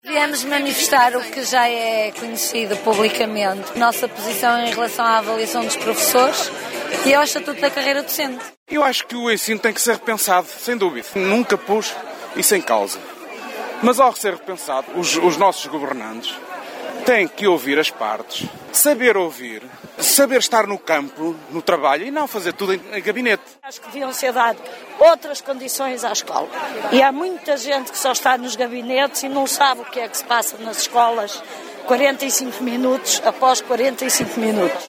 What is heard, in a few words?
vox pop